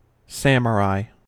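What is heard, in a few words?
"samurai", American English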